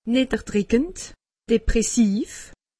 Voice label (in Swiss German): "depressiv", alsace; medecine